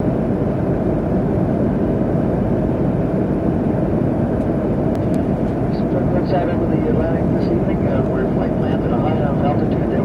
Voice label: Pilot speaking

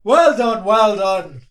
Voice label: Male Voice Well Done

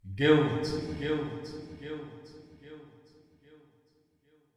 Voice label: Voice Says Guilty With Echo

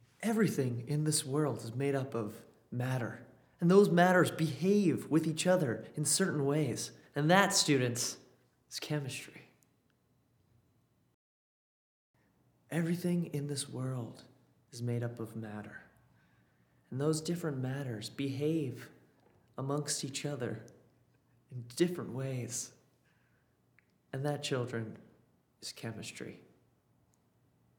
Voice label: Science Teacher Lecturing

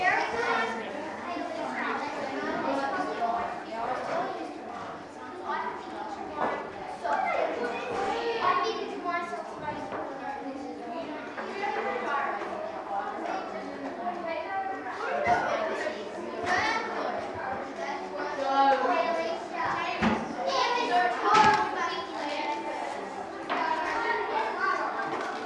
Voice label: Childrens Classroom

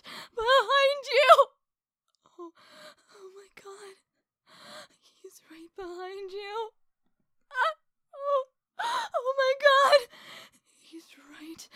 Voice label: Scared Omg He's Right Behind You

